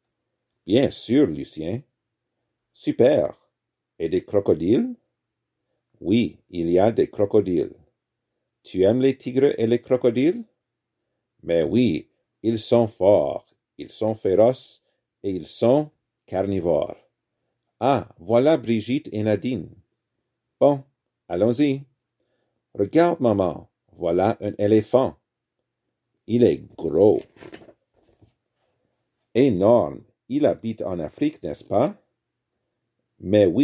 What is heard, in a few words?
section to read aloud; comic book au zoo